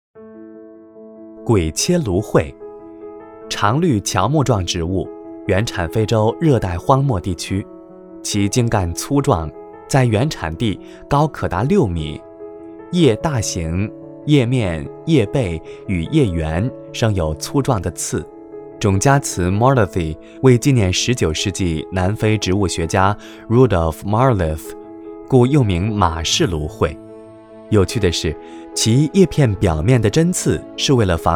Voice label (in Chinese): 男 中英文 解说类